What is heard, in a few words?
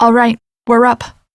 Revive Operator Voice en; Warframe; Operator Mission